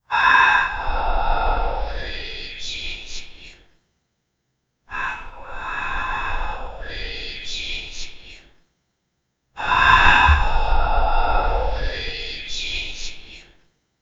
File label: a male creepy whispering; ambience